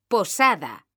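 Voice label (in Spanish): "POSADA" Spanish, female; mekanta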